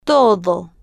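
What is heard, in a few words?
"todo", Spanish, female, slow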